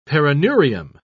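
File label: "perineurium", British English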